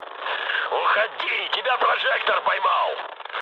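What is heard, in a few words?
searchlite, mood med, Russian; War Thunder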